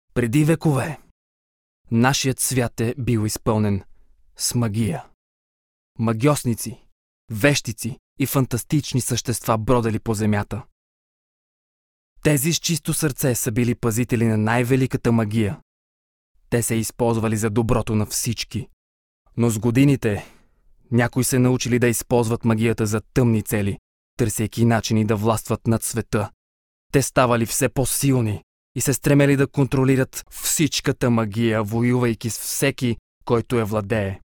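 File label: Bulgarian, male, narration; voice demos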